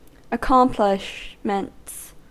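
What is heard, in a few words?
"accomplishments", US English; pronunciation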